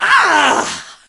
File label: hurt vo; pam